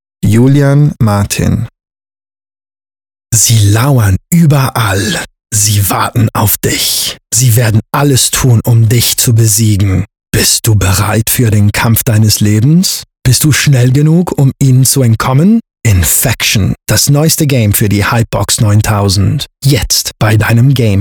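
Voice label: german spot cool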